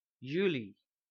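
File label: "july", Frisian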